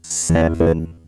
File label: Vocoder; construct assets